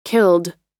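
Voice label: "killed", American English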